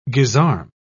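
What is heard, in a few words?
"gisarme", English, British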